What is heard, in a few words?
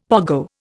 "pogo", Indian; Dictionary